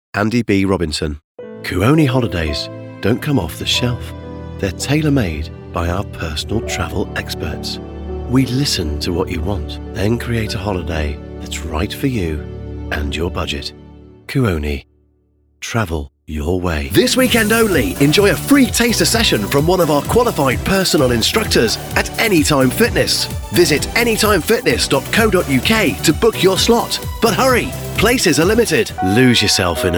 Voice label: commercial